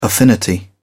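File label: "affinity", English, uk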